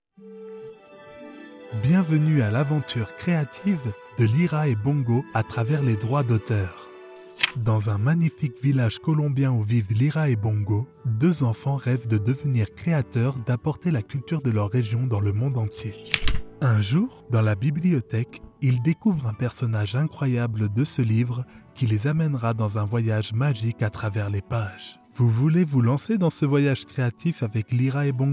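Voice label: Audiobook child, Audiobook For Childrens, male voice actor; Audiobooks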